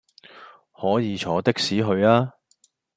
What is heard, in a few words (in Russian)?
Голоса, Гонконгский